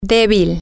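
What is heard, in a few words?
weak, spanish, female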